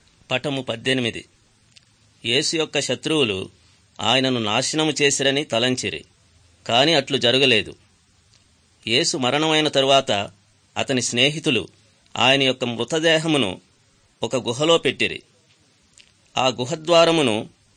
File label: good news telugu